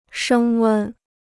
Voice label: "升温", Chinese